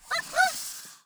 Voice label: Cute reptilian greetings; Stellaris